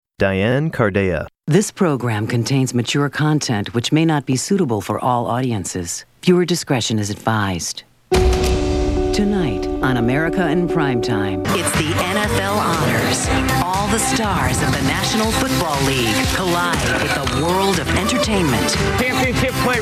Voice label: promo, promos women